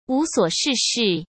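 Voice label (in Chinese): "无所事事", Mandarin; chinese flashcards; hsk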